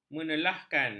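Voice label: "menelahkan", Malay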